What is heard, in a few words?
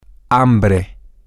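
hungry, Spanish, male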